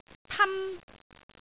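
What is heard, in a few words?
"pan1", Cantonese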